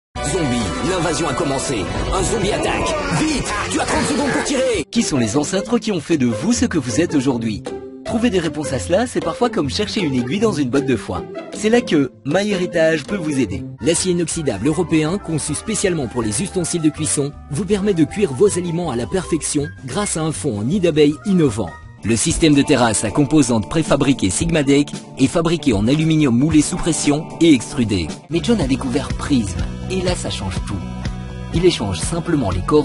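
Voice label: French, male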